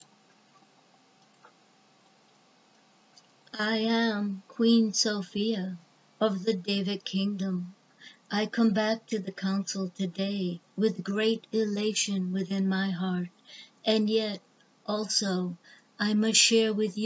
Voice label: Voiceover